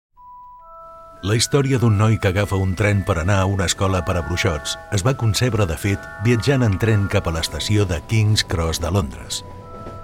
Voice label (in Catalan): Catalan Audio Guide